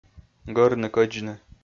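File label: "гӧрны-кӧдзны", Komi-Zyrian, male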